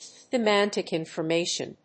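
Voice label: "semantic information", English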